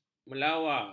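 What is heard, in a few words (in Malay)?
"melawa", Malay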